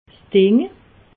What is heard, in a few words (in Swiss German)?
"ding", alsace